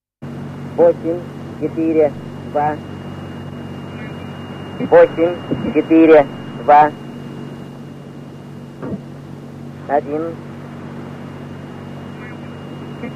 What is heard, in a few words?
english man german and german lady; irdial